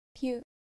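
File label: "pyu", Japanese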